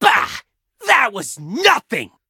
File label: victory, en; cookie run